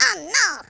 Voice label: stupid, French Lover; speech

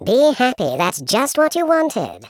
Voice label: "brilliant", Patronising

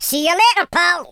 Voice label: bye bye, Scotsman; speech

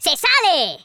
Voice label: amazing, Spanish

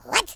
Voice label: what the, speech, Horror

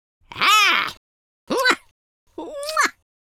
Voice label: happy, London Cabbie; speech

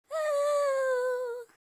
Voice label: sad

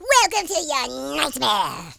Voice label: orders, speech, Horror

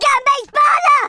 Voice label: take cover, speech Italian

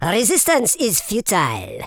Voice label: fire, Super Villain; speech

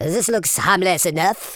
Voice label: grenade, Super Villain; speech

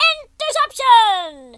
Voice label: "collect", US Sports speech

